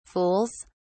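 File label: "fools", British English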